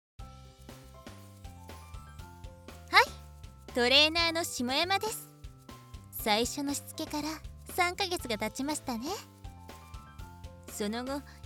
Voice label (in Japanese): シモヤマさんからのボイスメール